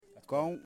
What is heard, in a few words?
"Wall", Yimas